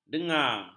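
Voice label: "dengar", Malay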